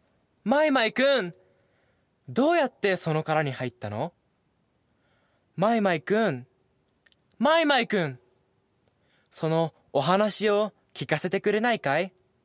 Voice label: Japanese male; Mr. Snail